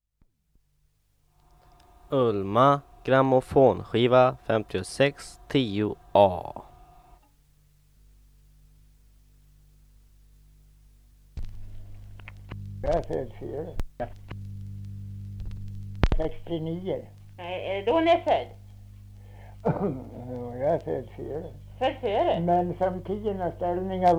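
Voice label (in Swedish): Hjulsjö Västmanland, Svenska sagor, dialekter; Folkminnen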